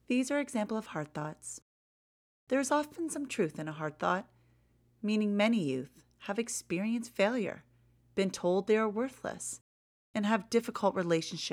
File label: Hard Thought Narration